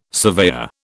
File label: "surveyor", British